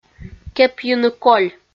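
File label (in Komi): "кепйыны коль", Komi, female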